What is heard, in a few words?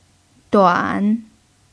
"duan3", chinese